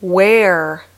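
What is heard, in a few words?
"where", English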